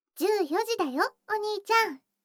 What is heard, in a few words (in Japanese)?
時報14時, ASMR